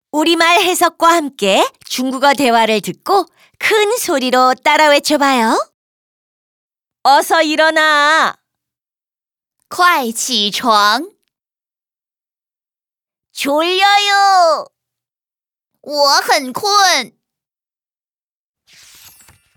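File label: dialogue Korean Chinese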